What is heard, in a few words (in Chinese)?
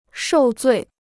"受罪", Chinese